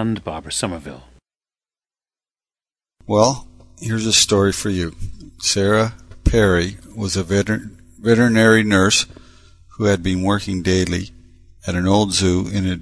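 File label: ontario, International Dialects of English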